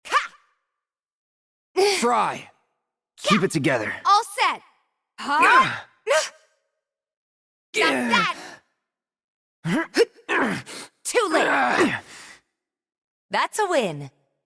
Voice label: character sounds; final fantasy vii remake